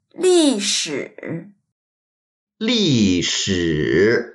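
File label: "li4shi3", Mandarin Chinese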